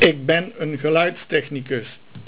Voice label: geluid, Dutch